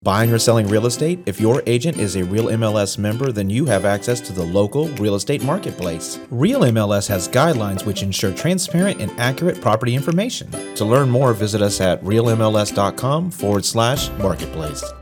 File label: Radio Spot